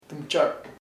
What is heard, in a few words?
female, chamacoco; mujer